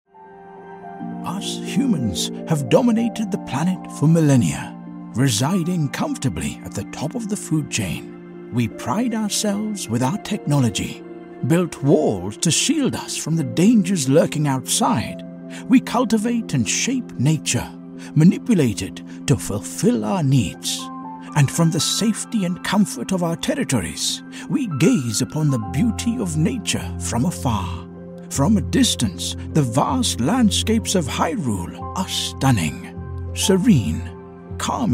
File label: english british impersonations